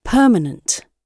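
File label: "permanent", British English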